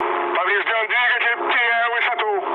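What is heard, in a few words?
Russian damaged engine mood low, speech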